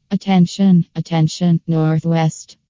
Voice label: close nw, voices